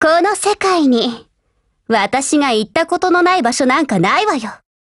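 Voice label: OPERATION BEGIN Japanese, Voice; GF Alarm; FNFAL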